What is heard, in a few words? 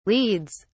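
"leads", English, British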